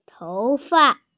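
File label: "tou2fa5", Mandarin Chinese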